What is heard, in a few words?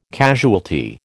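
"casualty", American English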